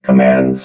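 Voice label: "commands", robot voice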